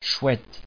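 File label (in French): "chouette" French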